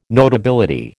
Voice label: "notability", American